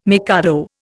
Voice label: "mikado", Indian

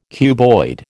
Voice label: "cuboid", American

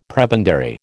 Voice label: "prebendary", American

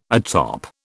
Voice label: "atop", British

